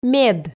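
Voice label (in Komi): "мед", Komi, female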